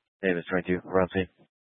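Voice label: Fire Dispatch, scanner audio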